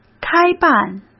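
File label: "开办", Mandarin Chinese; chinese-flashcards; hsk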